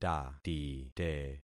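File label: "tatite", concatenated syllables; Affixing experiment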